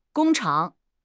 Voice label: "gong1 chang3", 汉语口语; 汉语口语新时代-初级下

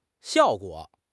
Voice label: "xiao4 guo3", 汉语口语; 汉语口语新时代-初级下

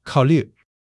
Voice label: "考虑", 汉语; 汉语口语新时代-初级下

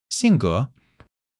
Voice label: "性格", 汉语; 汉语口语新时代-初级下

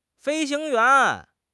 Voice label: "fei1 xing2 yuan2", 汉语; 汉语口语新时代-初级下

